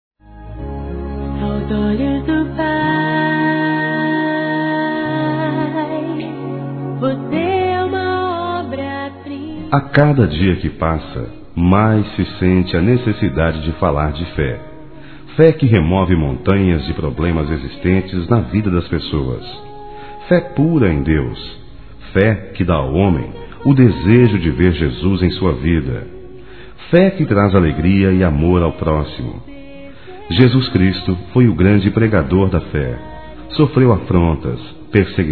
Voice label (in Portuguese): Evangélico Aniversário Geral Masculino, Telemensagens